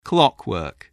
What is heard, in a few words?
"clockwork", English